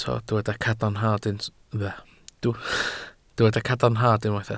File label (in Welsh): "cadarnhad", Welsh